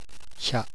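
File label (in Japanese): "hya", Japanese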